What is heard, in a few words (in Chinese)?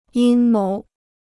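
"阴谋" Chinese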